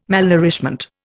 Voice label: "malnourishment", Indian

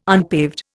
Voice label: "unpaved", Indian

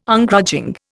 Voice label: "Ungrudging", Indian

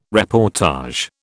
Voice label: "reportage", British

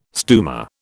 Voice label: "stumer", British

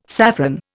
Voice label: "saffron", Indian

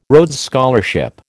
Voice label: "Rhodes Scholarship", American

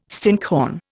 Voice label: "stinkhorn", Indian

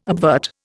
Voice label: "obvert", Indian

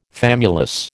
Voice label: "famulus", American

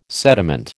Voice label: "sediment", American

